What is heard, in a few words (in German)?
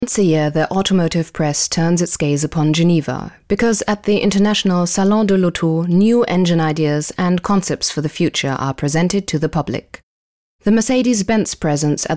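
English UK Kommentar Dynamisch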